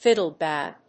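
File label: "fiddle bow", English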